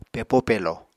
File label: "pepó-pelò", Apatani